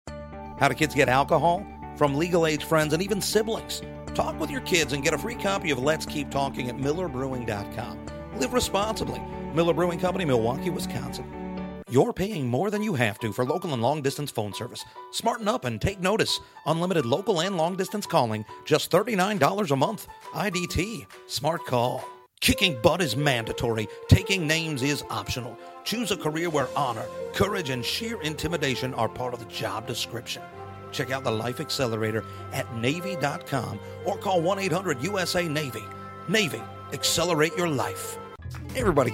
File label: voiceover